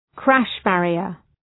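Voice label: "crash barrier", English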